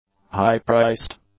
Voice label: "high-priced", English; adjectives